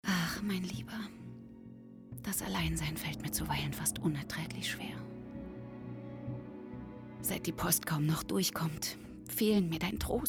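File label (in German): HÖRSPIEL; EPSILON; LOFT VOICES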